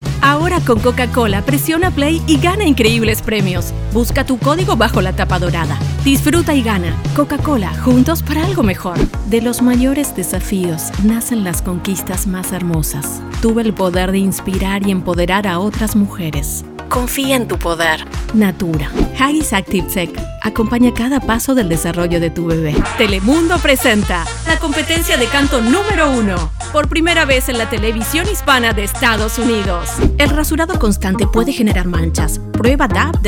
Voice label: voiceover, foreign language; New York; spanish

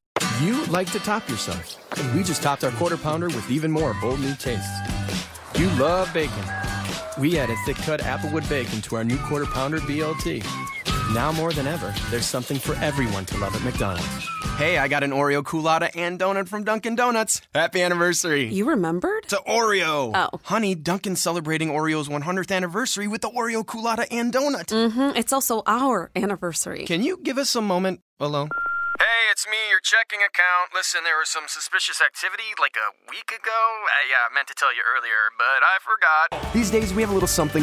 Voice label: Voiceover, Commercial, Men; Chicago

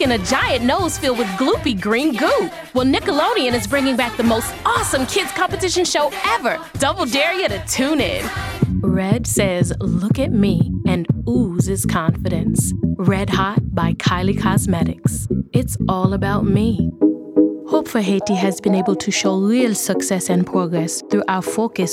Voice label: Voiceover Commercial Women; Chicago

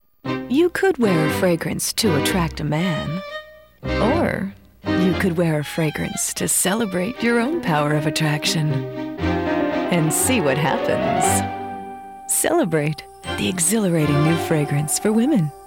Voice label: Voiceover Animation; New York

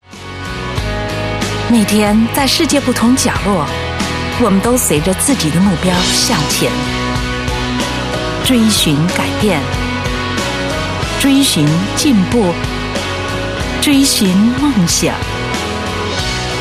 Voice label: Voiceover, Foreign Language, Chinese; Chicago

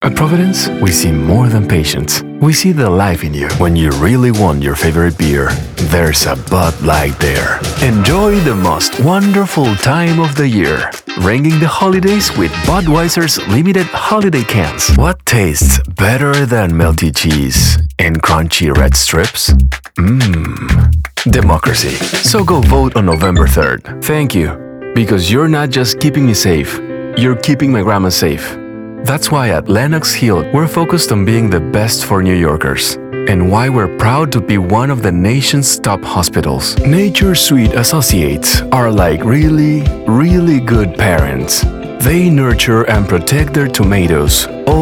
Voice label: voiceover foreign language spanish; New York

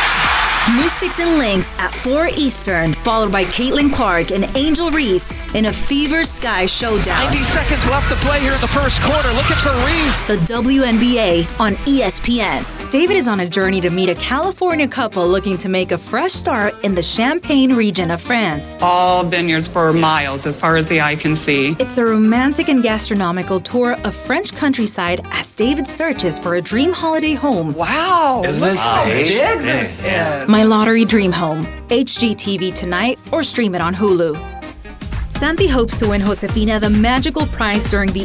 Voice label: voiceover, commercial, women; New York

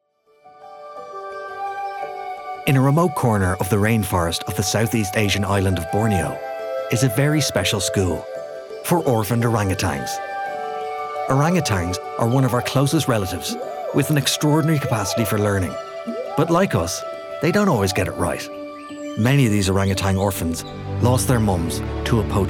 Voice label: Voiceover Animation; New York

